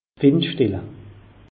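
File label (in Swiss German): "windstille", Alsatian, Haut-Rhin; meteo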